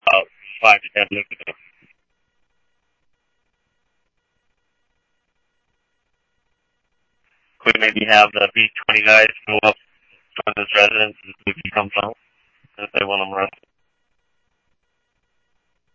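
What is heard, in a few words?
police department, scanner audio trunk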